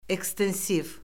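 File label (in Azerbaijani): "ekstensiv", Azerbaijani; Orfoqrafiya Lüğəti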